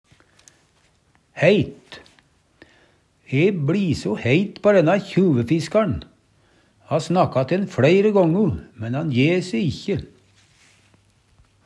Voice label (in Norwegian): heit sinna, Numedalsmål; E bLi so heit på denna kjuvefiskar'n! Ha snakka te'n fLeire gångu, men han je se ikkje.